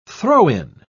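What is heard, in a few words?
"throw-in", British English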